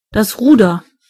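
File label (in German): "oar", German; gcompris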